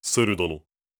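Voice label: question short, voice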